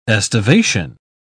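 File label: "aestivation", English, uk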